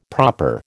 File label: "proper", American